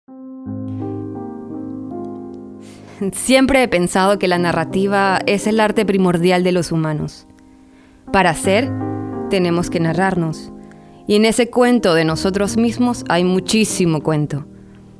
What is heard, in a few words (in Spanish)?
nar actuacion